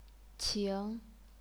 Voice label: "qing2", chinese pinyin